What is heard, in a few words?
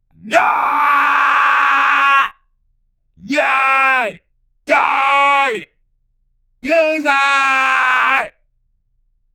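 a man dramatically yelling, questioning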